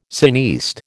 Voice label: "cineaste", American

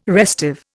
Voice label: "restive", Indian

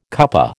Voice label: "cuppa", American

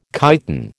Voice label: "chiton", American

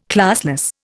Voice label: "classless", Indian

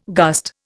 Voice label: "gust", Indian

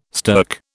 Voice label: "stirk", British

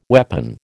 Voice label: "weapon", American

